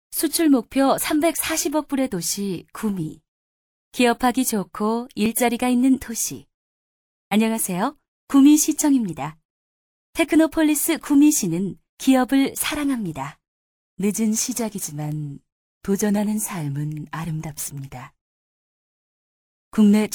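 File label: Korean female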